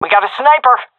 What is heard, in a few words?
sees sniper, fem; vo; agents; Source Model Packs; Counter Strike Model Pack; CS2